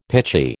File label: "pitchy", American